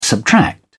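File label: "subtract", British English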